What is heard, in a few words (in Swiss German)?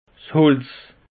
"holz", alsace; peche